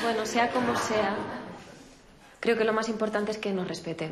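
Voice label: Voice Acting es Female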